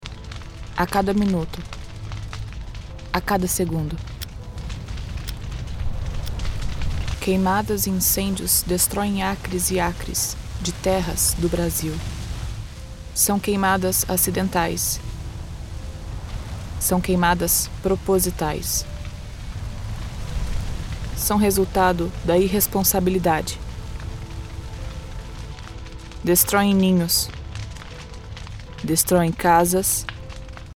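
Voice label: commercial ad flat expressionless serious environment female brazilian portuguese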